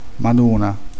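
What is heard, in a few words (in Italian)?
"mattone", Italian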